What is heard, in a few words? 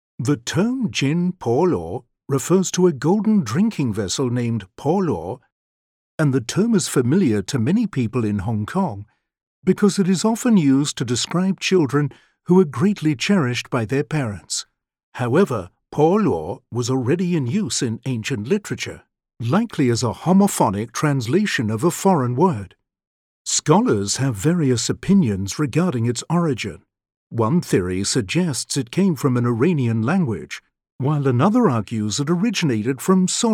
ENG; Quatrefoil stemmed cup; Tang dynasty, 877; Silver; audio guide; British Museum